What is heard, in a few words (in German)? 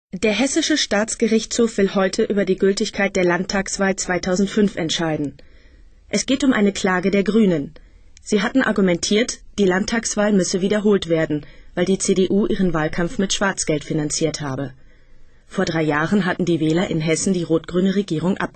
nachrichten, Frauen; wdr